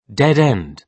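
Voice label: "dead end", British English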